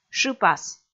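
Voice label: "шыпас", Komi, female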